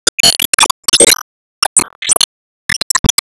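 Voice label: Voice Emo Warcry; disney star smash android ios mobile gamerip 2020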